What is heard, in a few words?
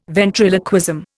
"ventriloquism", Indian